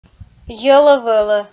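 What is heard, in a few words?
"йӧлӧ-вӧлӧ", Komi, female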